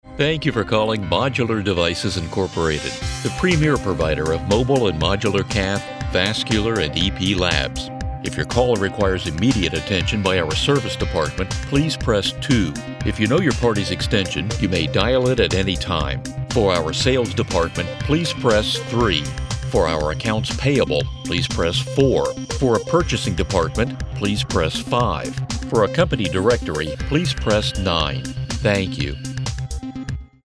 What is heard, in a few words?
IVR Telephone American man